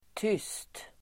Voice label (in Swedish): "tyst", Swedish